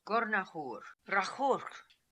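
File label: "Gornahoor Rakhoorkh", pronunciations; Fourth Way; Beelzebub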